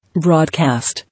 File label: "Broadcast", English